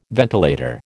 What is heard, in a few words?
"ventilator", American